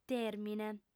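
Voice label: "tèrmine", Sardinian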